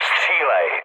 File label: attack position, speech cze, mood low